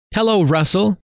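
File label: hello, male; trainer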